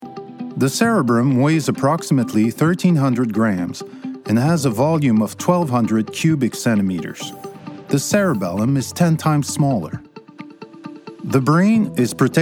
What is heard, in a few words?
English Narration; Musée de la Civilisation; L'anatomie de l'encéphale